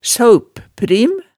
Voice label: "saaupprim", hemsedalsmaalet

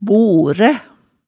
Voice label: "boræ", hemsedals maalet tale

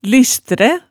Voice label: "lystræ", hemsedalsmaalet tale

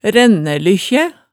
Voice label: "rænnælykkjæ", hemsedalsmaalet, tale

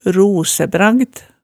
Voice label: "rosebragd", Hemsedal

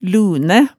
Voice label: "lunæ", hemsedalsmaalet tale

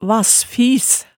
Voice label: "vassfis", hemsedalsmaalet tale

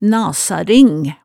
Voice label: "nasaring", Hemsedal

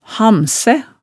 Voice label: "hamsæ", hemsedalsmaalet

